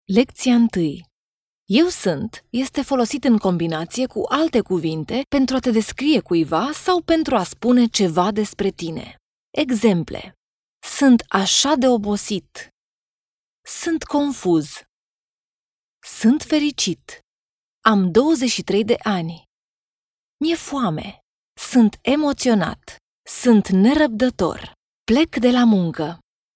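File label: Romanian elearning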